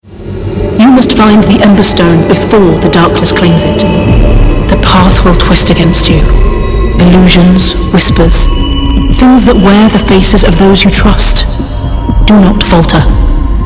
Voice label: Computer Game, female